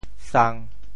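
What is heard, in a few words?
"sang1", Teochew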